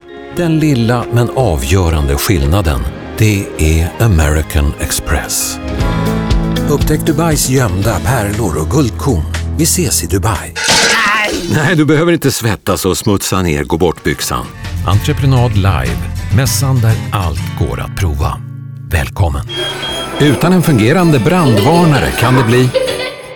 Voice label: Male Swedish voiceover commercial